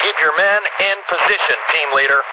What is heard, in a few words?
Dispatch; Mirror's Edge (2008); Unused Assets; New Eden